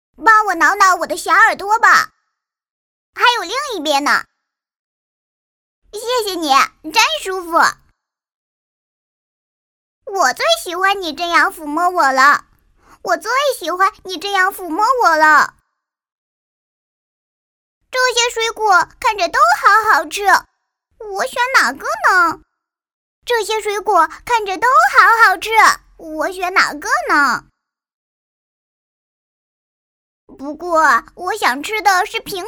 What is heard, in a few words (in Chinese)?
男童, 童声, 国语女声配音